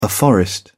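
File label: "afforestation", English, uk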